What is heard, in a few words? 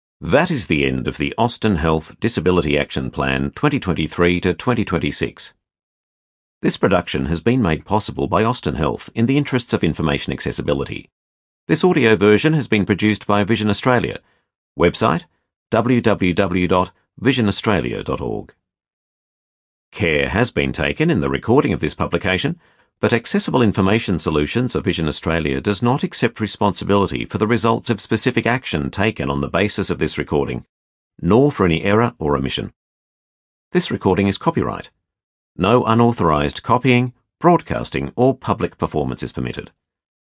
Closing Announcement